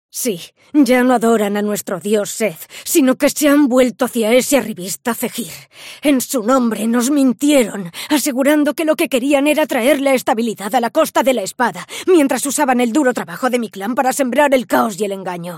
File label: female, videogames